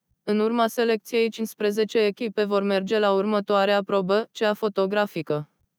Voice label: synth